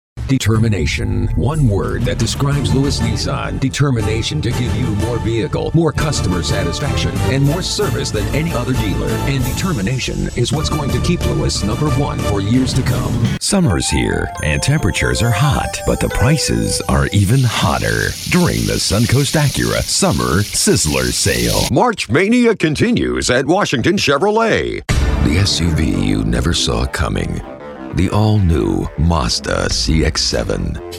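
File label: AUTOMOTIVE COMPOSITE, voice over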